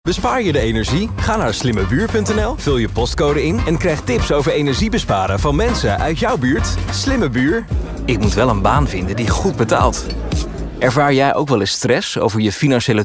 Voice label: COMMERCIALs